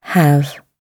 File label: "have", gb; weak forms